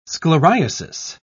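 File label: "scleriasis", British English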